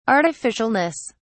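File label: "artificialness", English, British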